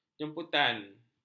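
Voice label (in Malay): "jemputan", Malay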